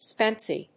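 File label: "psst", English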